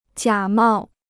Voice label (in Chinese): "假冒", Chinese